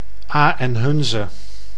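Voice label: "Aa en Hunze", Dutch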